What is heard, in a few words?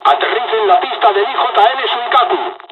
landing, dialogs spanish; War Thunder